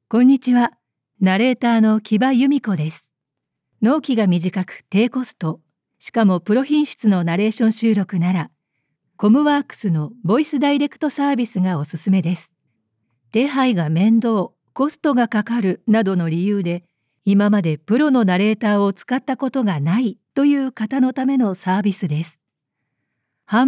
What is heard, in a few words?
narration woman